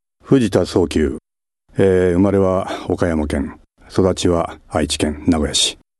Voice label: freetalk, male voice

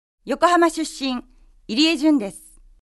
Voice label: female voice

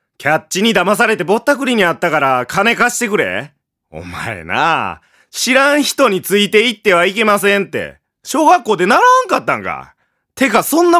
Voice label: male voice

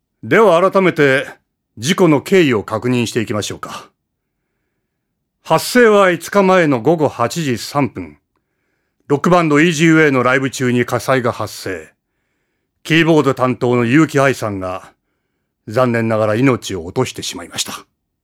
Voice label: male voice; セリフ

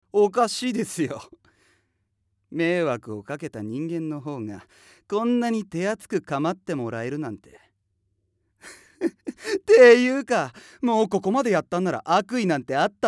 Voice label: male voice; セリフ

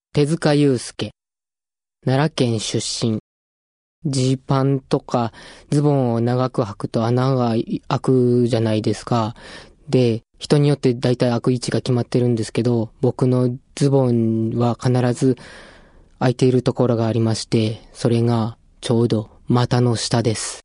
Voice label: freetalk, male voice

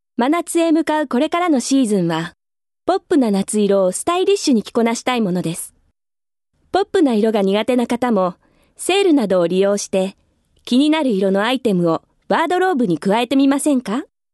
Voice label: female voice

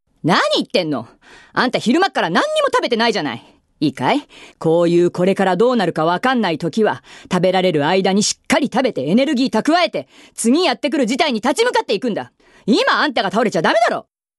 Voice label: female voice

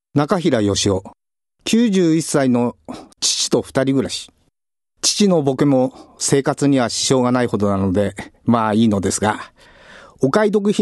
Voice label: freetalk, male voice

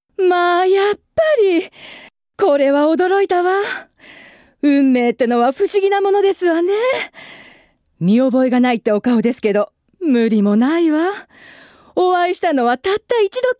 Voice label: female voice; セリフ

